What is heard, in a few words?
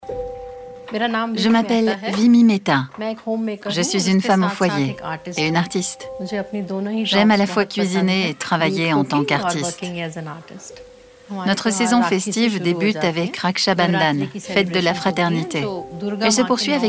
voice over